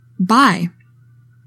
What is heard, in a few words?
"bye", english; 3000 words